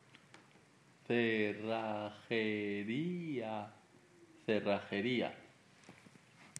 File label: how to say locksmith in spanish